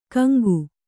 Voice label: "ಗೆಂಕು", Kannada

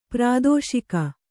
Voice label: "ಪ್ರಾದೋಷಿಕ", kannada

